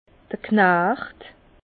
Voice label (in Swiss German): "knacht", Bas Rhin; vin; valet ouvrier